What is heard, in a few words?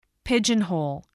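"pigeonhole", spoken word, spelling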